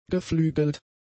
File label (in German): "geflügelt", German; adjectives